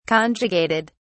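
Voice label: "conjugated", British English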